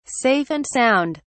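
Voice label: "safe and sound", English, British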